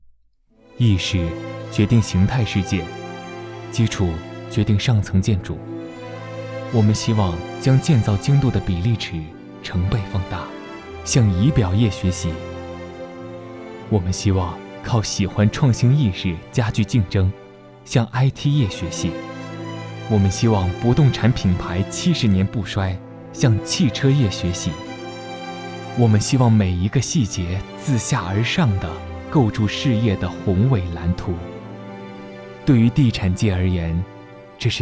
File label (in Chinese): 地产广告宣传片